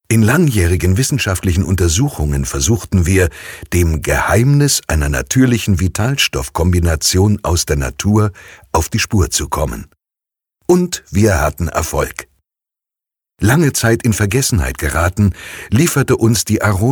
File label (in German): Produktfilm